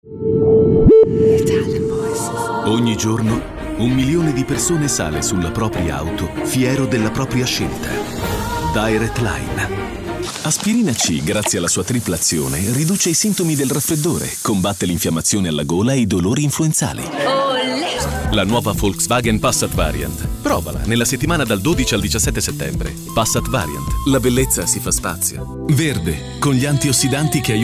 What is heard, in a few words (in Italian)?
Italian voices, Authoritative Reassuring, male voices